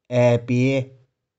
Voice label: "ae3pie5", 玉環

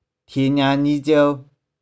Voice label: "thie1niang3ni3ciou3", 玉環

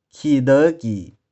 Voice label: "khi2deu5ki0", 玉環

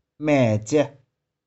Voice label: "mae2cih4", 玉環

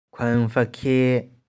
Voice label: "khueng3feh4khie3", 玉環

